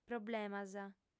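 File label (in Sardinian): "problemas", female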